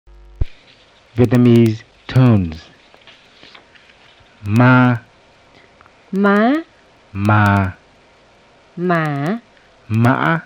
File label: vietnamese tones